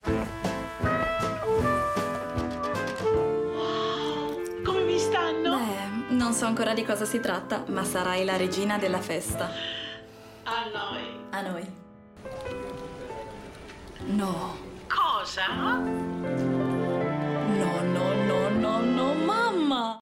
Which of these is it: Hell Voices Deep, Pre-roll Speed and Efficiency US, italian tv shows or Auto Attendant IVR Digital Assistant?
italian tv shows